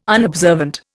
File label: "unobservant", Indian